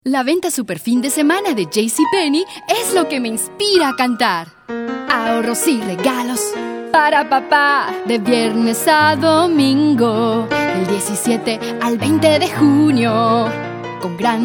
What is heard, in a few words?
spanish females